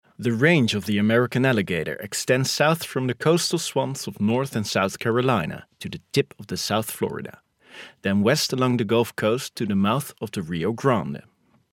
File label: Dutch M English Tour Guide, accent of the netherlands